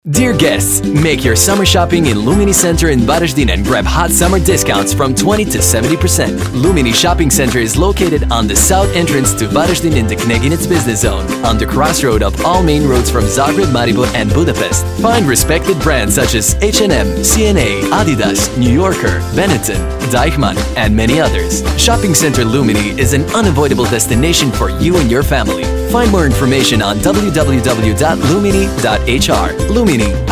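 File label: Croatian, English accent; GoLocalise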